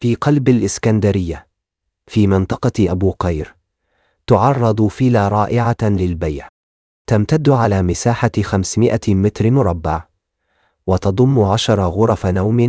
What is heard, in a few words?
narration audio